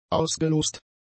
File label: "ausgelost", German; adjectives